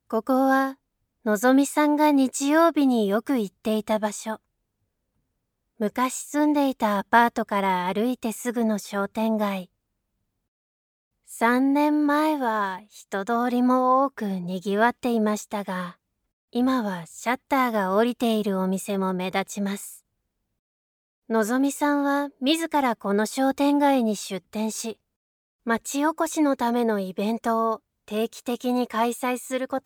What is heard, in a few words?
female